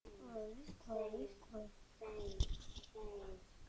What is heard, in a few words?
whisper